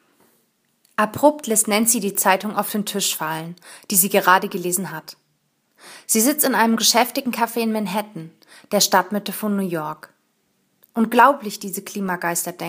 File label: German; wissenschaftliche kurzgeschichten